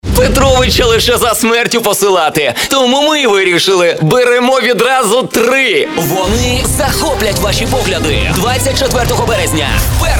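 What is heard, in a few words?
Ukrainian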